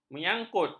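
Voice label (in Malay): "menyangkut", Malay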